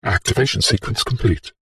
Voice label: science fiction computer console voice robotic male says activation sequence; says activation sequence complete